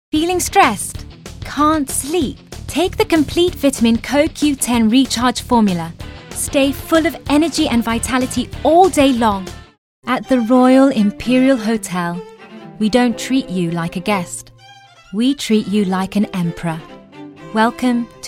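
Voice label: British English, TV commercial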